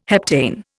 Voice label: "heptane", Indian

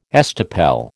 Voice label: "estoppel", American

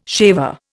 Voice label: "shaver", Indian

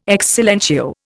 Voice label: "ex silentio", Indian

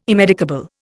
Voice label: "immedicable", Indian

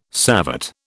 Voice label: "savate", British

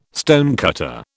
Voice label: "stonecutter", British

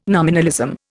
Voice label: "nominalism", Indian

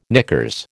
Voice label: "knickers", American

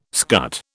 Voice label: "scut", British

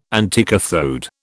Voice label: "anticathode", British

